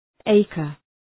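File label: "acre", English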